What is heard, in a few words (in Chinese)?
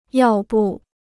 "要不", Chinese